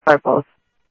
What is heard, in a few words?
Sheriff Common, scanner audio trunk; ThompsonPeakScot